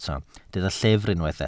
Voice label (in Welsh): "llyfr" Welsh